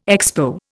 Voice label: "Expo", Indian